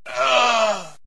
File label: death goblin warior; Viking Escape